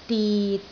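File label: "dit6", Cantonese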